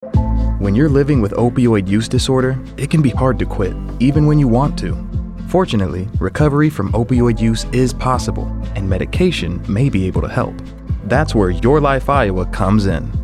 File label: male; YLI MOUD Use Disorder